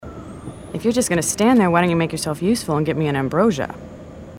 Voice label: female marines

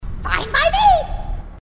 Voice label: grunt crazy; h2dialogue

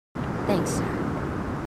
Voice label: marines female, marine tough

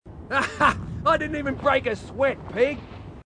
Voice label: marine, aussie; h2dialogue

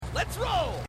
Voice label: lets roll, marines

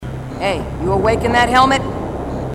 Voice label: female marine

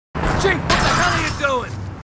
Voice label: marines, aussie; h2dialogue

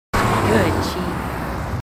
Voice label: marines female, marine tough

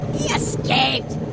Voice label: grunt; h3dialogue; staten

